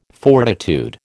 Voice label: "fortitude", American